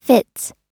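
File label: "fits", US English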